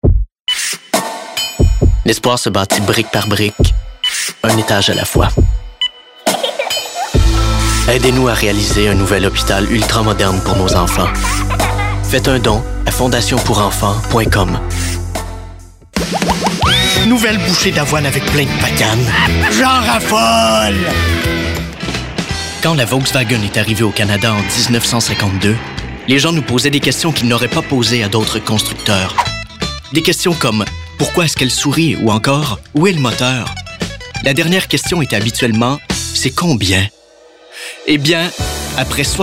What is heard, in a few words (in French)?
publicite